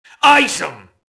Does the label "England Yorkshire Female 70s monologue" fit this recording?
No